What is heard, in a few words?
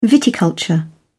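"viticulture", English, British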